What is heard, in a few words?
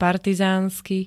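"partizánsky", Slovak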